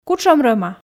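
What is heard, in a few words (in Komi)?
кутшӧм рӧма, Komi, female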